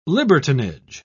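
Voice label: "libertinage", British English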